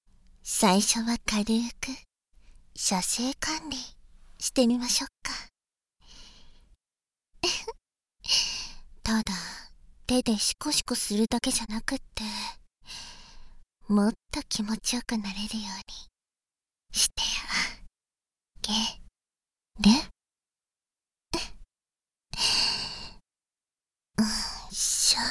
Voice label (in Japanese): 囁き射精管理（バイノーラルマイク収録 効果音あり大きめ）